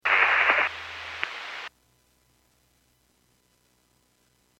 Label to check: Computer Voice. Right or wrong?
Wrong